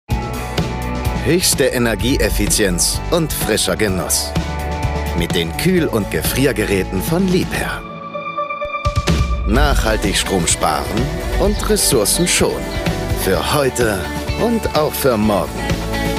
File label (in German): Werbung Online Energieeffizienz; Liebherr